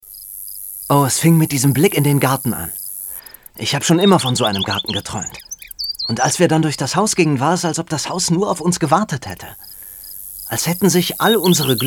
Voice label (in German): Szene, German; voice